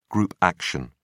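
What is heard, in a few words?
"group action", British English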